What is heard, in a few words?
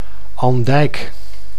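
"Andijk", Dutch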